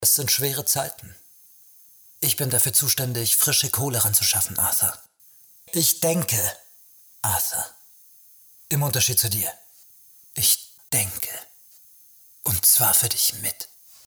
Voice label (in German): SYNCHRON Buchhalter